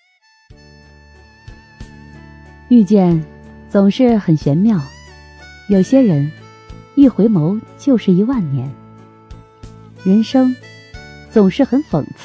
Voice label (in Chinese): 女 情感类型 深情幽邃